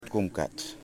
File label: "Cormorants", Yimas